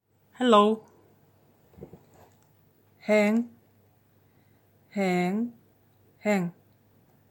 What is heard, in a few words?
"Hello", buryat